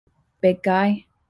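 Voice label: "big guy", English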